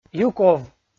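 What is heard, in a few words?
"юков", Komi-Zyrian, male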